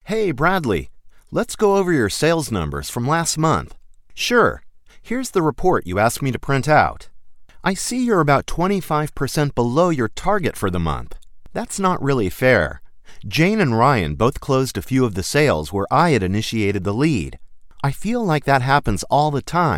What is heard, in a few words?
E-learning Conversational; US & Mid-Atlantic English styles of voiceover; Demo